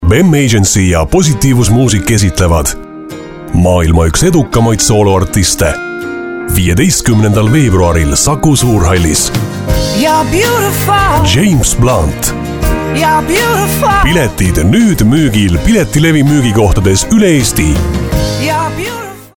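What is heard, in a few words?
Estonian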